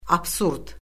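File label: "absurd", Azerbaijani; Orfoqrafiya Lüğəti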